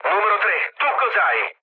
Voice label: chat, speech it; War Thunder

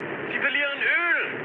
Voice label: damaged oil, mood high, speech ge; War Thunder

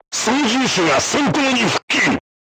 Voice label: voice message driver healed, crew tanks japanese

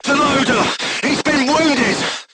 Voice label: voice message charger wounded, vietnamese

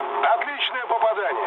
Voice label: bomb success, mood low, speech ru; War Thunder

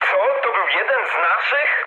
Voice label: pol player killed ally mood low, speech pol; War Thunder

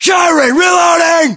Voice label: inform reloading, US

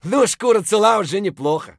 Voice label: scav mutter, voice; Escape from Tarkov; scav2

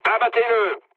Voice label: attack position mood low, speech fr; War Thunder

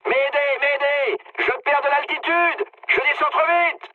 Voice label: aircraft destroyed mood med, speech fr

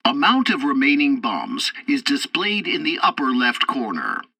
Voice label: bomber drop bombs, english; War Thunder

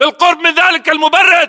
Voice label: landmark near fridge, battle chatter, english

